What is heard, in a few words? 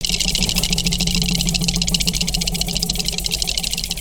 Scared Pirate; foley